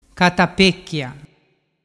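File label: "catapecchia", Vernazzese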